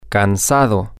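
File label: tired, Spanish, male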